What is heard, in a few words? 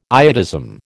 "iodism", American